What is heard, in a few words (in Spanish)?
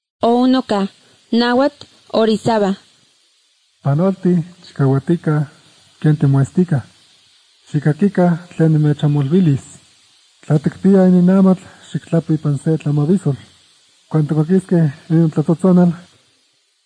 spanish mexico